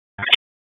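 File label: EMS Dispatch; wake; p25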